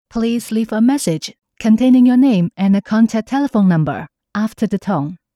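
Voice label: Chinese English F Female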